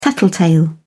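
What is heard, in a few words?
"tattletale", British English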